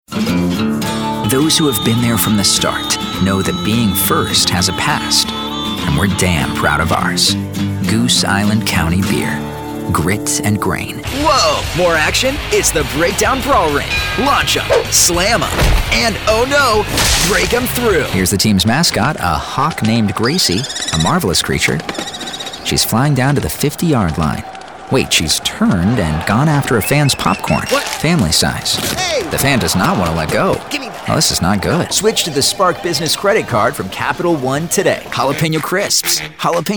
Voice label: Voiceovers